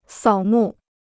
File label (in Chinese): "扫墓", Chinese